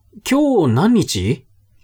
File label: man